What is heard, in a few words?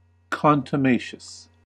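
"contumacious", US English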